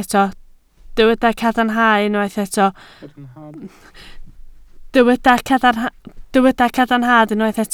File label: "cadarnhad", Welsh